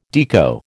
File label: "Deco", American